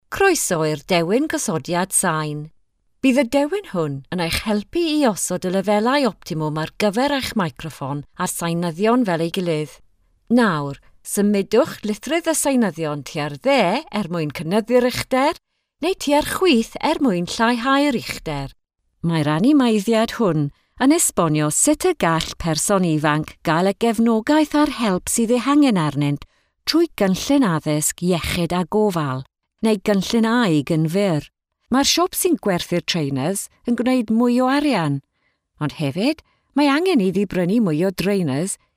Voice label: Welsh language eLearning